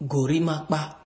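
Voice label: "gorimakpa", Nigerian Pidgin, male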